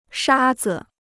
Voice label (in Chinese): "沙子", Chinese